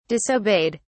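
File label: "disobeyed", English, British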